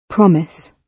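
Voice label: "promise", British English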